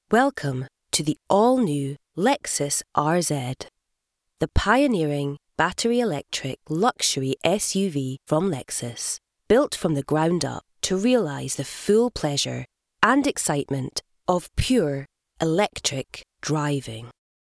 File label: Advert, voice over; Lexus; Commercial reads